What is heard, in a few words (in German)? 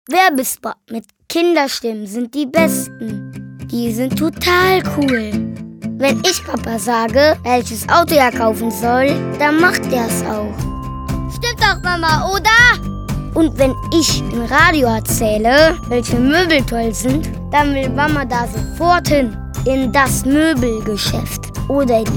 Werbung